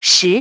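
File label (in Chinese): "ten", Chinese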